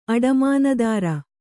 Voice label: "ಅಡಮಾನದಾರ", kannada